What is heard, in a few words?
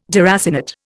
"deracinate", Indian